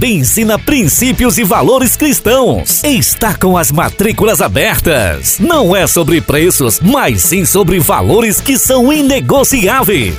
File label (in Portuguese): Spot Simples Escola; Batista